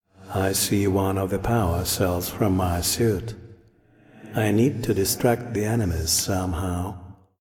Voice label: Voice Monolog

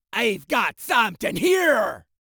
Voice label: Voices Jamaican

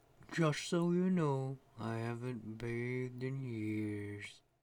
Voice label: Voices Female Beggings

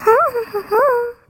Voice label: Female

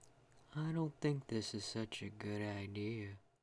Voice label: Voices Female Beggings

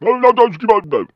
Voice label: Male Talk

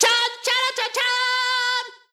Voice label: Fanfare (Latin American Spanish)

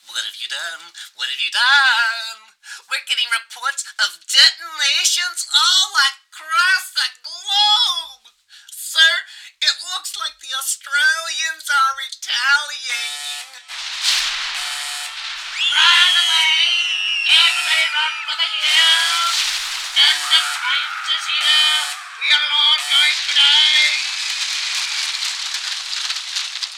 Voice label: Phone Prank

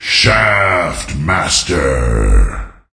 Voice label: Voices Announcer Reward

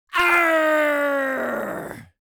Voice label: Voices Jamaican Arrr

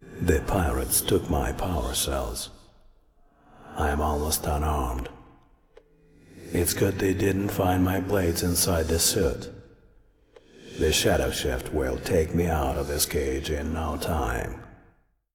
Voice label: Voice Dialog; we are the dwarves gamerip 2016

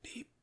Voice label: Voices quiet; The Looker

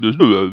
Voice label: Male Talk

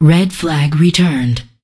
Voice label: Voices Announcer Status

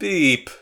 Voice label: Voices sad; The Looker

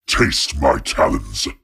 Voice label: Voices Phrase Hi

